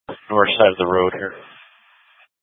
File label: Mutual Aid-Intersystem Interop B, scanner